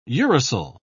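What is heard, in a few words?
"uracil", British English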